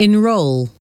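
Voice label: "enrol", English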